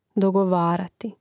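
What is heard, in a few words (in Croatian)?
"dogovarati", Hrvatski; mreznik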